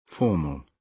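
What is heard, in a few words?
"formal", British English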